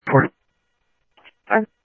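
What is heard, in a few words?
police department, scanner audio trunk; H-Scottsdale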